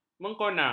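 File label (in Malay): "mengkona", Malay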